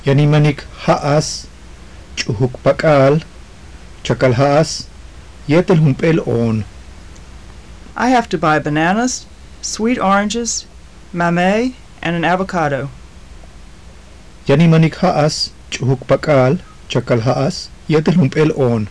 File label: conversation, maya; Yaan in manik ja'as, ch'ujuk pak'áal, chakalja'as yúetel junp'éel oon.; I have to buy bananas, sweet oranges, mamey and avocado.; JUNP'IIT MAYA